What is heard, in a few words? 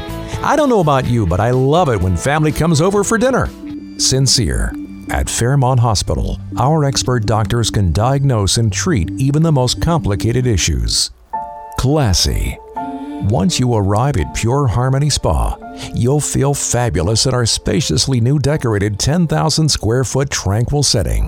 male